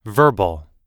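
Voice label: "verbal", English; oxford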